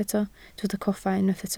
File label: "coffa", Welsh